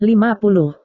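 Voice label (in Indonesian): "lima puluh", Indonesian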